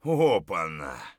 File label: mutter, voice; Escape from Tarkov